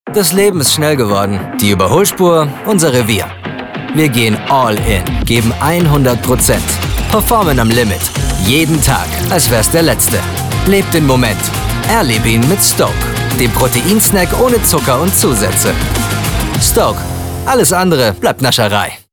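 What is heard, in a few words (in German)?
Stoke protein DE, Werbung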